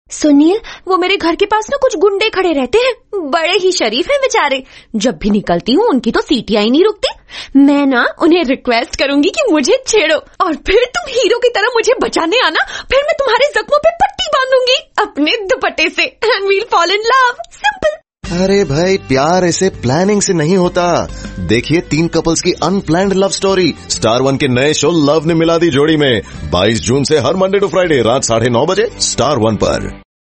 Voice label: Radio spot; Star One; Love Ne Milla Di Jodi